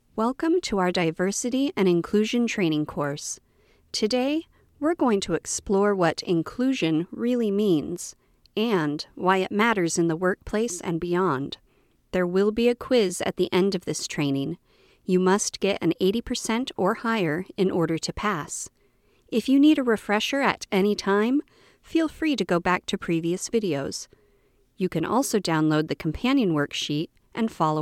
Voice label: eLearning